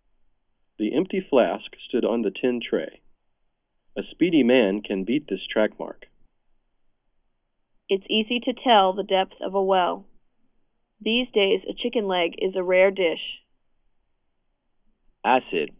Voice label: array mic No Background Noise; mic array tablet